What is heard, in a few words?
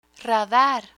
"radar", Spanish; law enforcement equipment